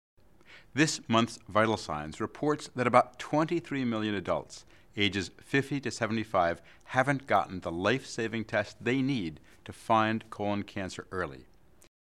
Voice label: English; Colorectal Cancer